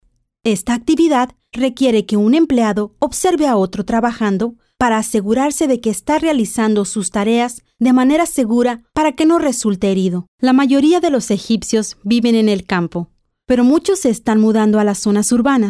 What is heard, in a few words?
locutora mexicana, mexican voiceover